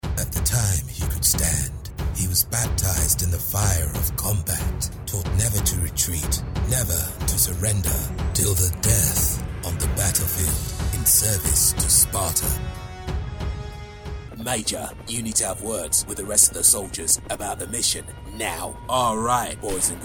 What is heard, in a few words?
english british video games